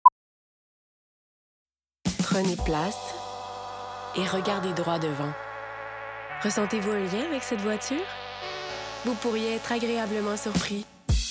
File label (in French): demo de voix